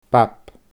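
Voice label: "bab", Scottish Gaelic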